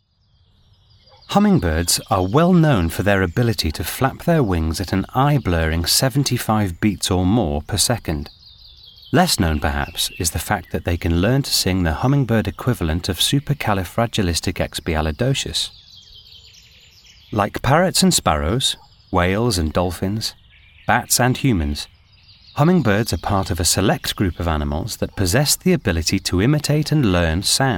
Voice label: Narration